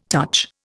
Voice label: "touch", Indian

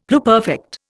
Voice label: "pluperfect", Indian; Dictionary

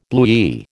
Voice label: "bluey", American

